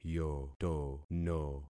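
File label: "yotono", concatenated syllables; Affixing experiment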